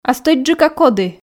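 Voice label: "а стӧчджыка коді", Komi, female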